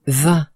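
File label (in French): "vin", French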